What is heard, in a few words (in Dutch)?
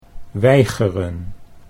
"weigeren", Dutch